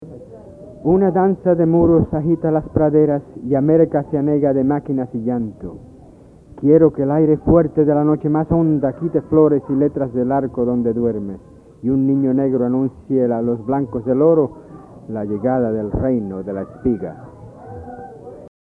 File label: Grandpa reading in Spanish